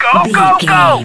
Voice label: radio voice male soldier go go go

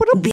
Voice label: voice fun character cute cartoon

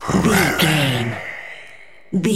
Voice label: zombie voice brains

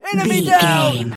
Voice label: voice male soldier enemy down

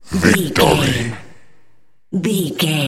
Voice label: announcer voice classic fps style victory

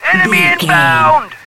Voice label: radio voice male soldier enemy inbound

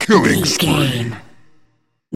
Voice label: announcer voice classic fps style "killing spree"

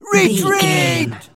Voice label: voice male soldier retreat

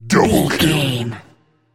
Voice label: announcer voice classic fps style "double kill"

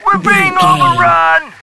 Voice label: radio voice male soldier we're being overrun

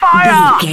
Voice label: radio voice male soldier fire

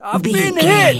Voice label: voice male soldier i've been hit

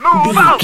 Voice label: radio voice male soldier move out

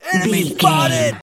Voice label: voice male soldier enemy spotted

